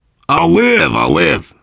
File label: getting revived, voice, biker